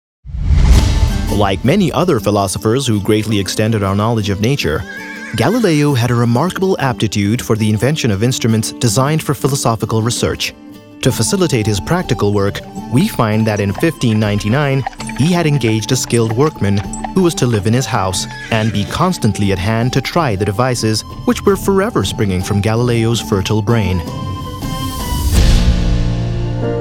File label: NARRATION